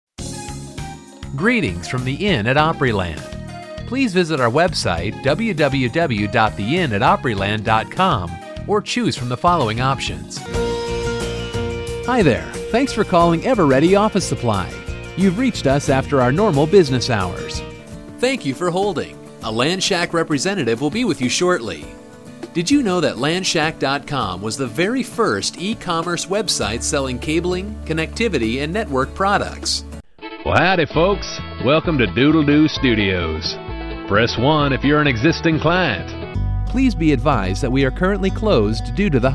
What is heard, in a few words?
IVR